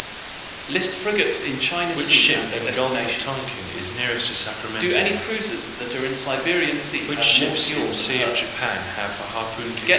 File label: mixture roomD SNR10 azim15 left